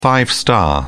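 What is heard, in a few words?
"five star", British English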